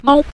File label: "mao", Mandarin; 毛